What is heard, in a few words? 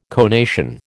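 "conation", American English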